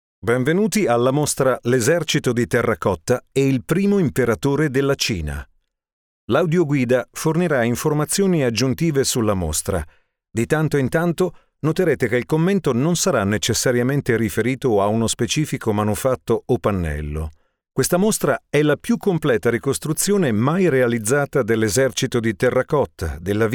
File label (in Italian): audioguida ingresso